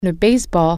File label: "baseball", French